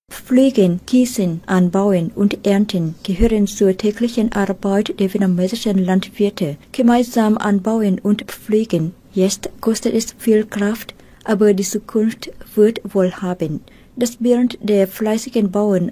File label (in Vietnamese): Tieng Duc; thu am da ngon ngu